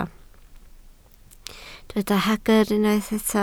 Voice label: "hagr", Welsh